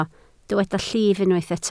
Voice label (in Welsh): "llif", Welsh